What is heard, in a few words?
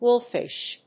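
"wolffish", English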